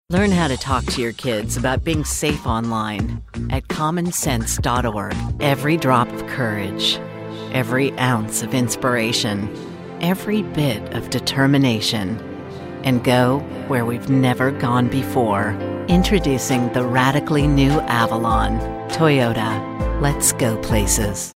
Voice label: Authoritative